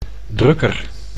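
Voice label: "drukker", Dutch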